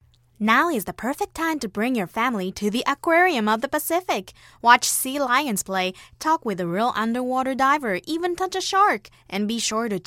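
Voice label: JA AccEN Commercial, Japanese accented English; GoLocalise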